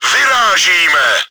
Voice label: start battle, cz; Vyrážíme!; Поехали!; Начало боя; Czech; World of Tanks